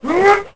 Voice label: man attack; Pirates Of The Caribbean; DUEL